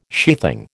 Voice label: "sheathing", American